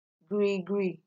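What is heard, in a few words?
"grigri", Nigerian Pidgin, female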